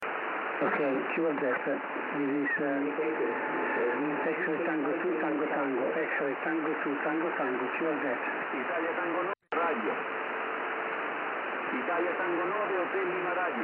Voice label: 6m ssb